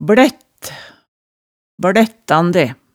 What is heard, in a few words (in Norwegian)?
"blætt, blættande", hemsedals maalet tale